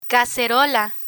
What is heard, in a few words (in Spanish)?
"cacerola", Spanish; learn spanish